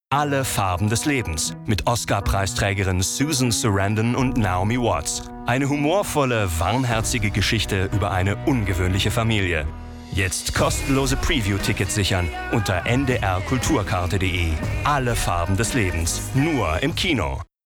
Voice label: radio trailer; vobis; LOFT VOICES

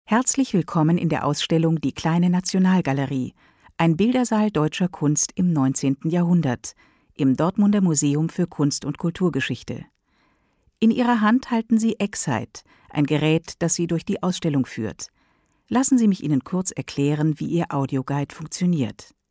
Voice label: audioguide intro; kl. nat. gal.

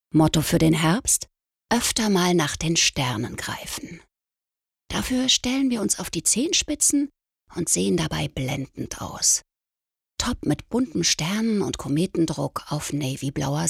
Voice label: Commercial; Valentino

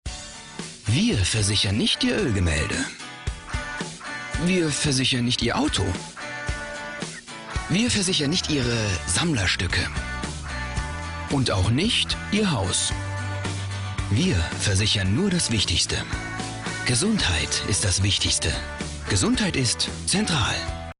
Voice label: TV Spot; Centralversicherung

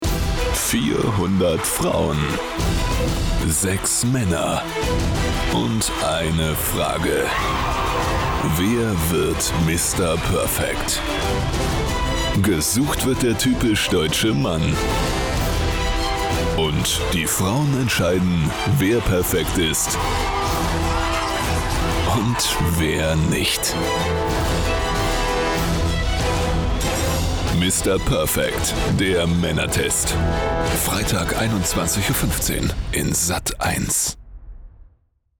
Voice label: Trailer Vocaled; Sat 1

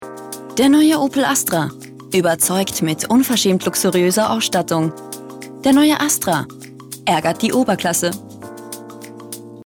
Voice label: Spot; Astra

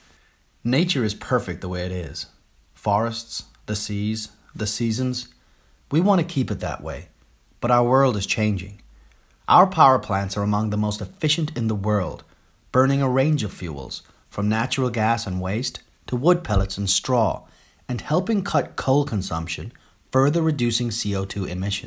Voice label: Voice mid Atlantic Narrator

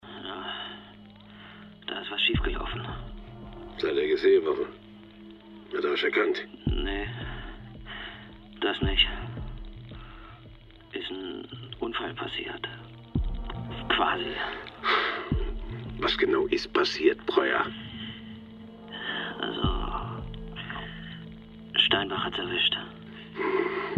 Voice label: Hörspiel, Audio Drama (Hörspiel), German; Hörspiel Kick Back

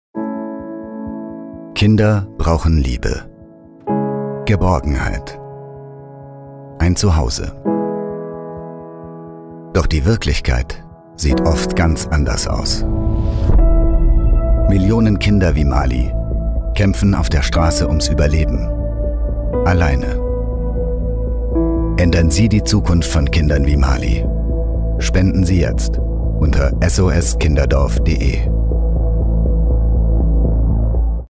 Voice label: German TV Commercial; SOS Kinderdorf

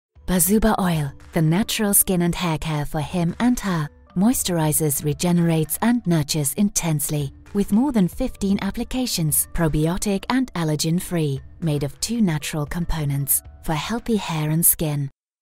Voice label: Spot englisch; BaSuBa Oil Online

